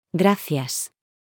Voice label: Spanish Thank you; Greetings